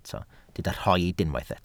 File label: "rhoid", welsh